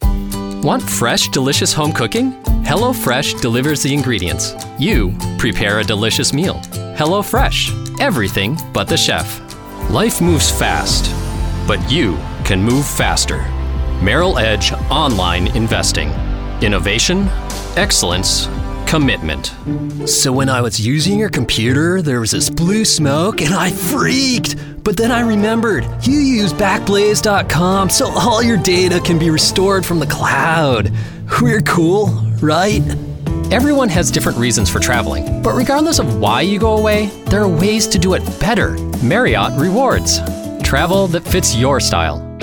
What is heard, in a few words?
commercial